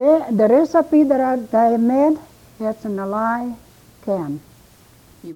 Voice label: Oral history with 82 year old female Fruita Colorado, american english dialect